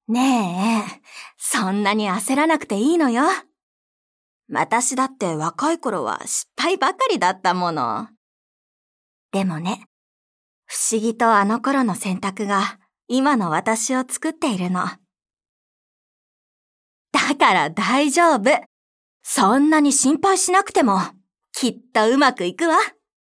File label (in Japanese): お姉さん